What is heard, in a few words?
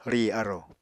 "rì arò", Apatani